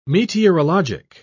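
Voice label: "meteorologic", English, British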